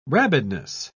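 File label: "rabidness", English, uk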